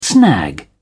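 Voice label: "snag", British English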